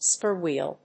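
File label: "spur wheel", English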